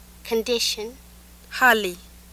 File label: Swahili h